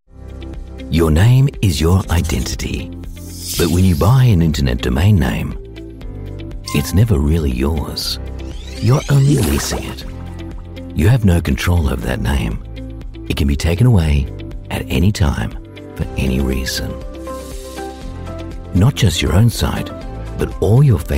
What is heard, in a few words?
english australian e-learning